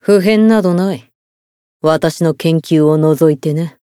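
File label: Voice win, japanese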